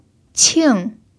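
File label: "qing4", Chinese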